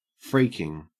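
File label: "freaking", Australian English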